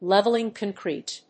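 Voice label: "leveling concrete", English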